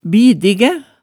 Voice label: "bidigæ", hemsedals maalet tale

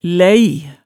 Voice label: "læi", hemsedalsmaalet tale

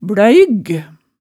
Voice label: "bløyg", hemsedals maalet tale

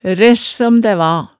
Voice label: "ræssom dæ va", Hemsedal

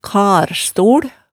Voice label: "karstol", hemsedalsmaalet tale

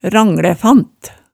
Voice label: "ranglæfant", Hemsedal

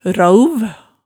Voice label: "raauv", Hemsedal

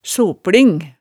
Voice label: "sopling", hemsedalsmaalet, tale

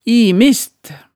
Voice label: "imist", hemsedalsmaalet

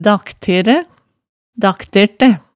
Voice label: "dakteræ, daktertæ", hemsedalsmaalet tale

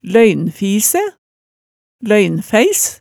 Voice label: "løynfisæ", hemsedalsmaalet, tale

